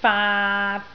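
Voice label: "faat3", Cantonese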